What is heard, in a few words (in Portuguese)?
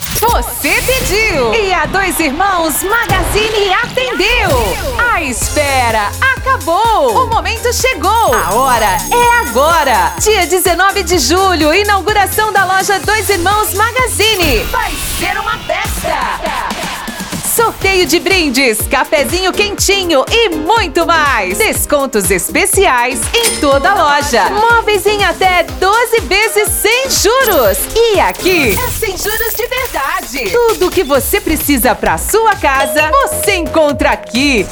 locutora